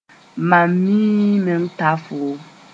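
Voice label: "ta", Mooré; as much as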